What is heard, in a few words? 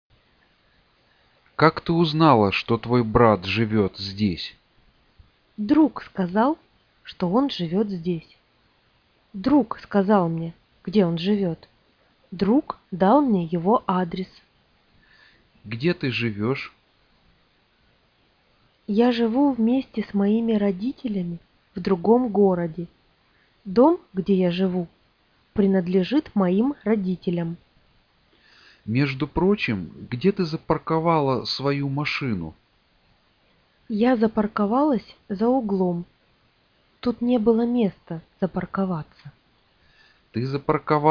Russian simple story